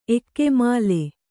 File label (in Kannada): "ಏಕಮೂಲ", Kannada